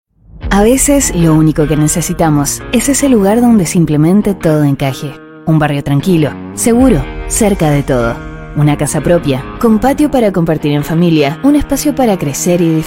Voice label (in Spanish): neutro, espanol neutro